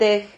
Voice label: "ted", Silabas

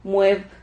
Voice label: "mueb", Silabas

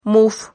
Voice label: "muf", Silabas

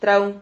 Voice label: "trau", Silabas

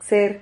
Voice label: "xer", Silabas

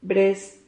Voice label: "bres", Silabas

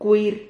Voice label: "cuir", Silabas

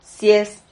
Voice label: "sies", Silabas

